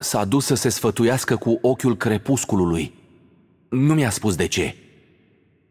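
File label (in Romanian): Intro, Romanian; Yunara; bloom minigame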